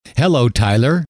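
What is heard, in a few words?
"hello tyler", male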